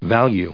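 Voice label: "value" English, US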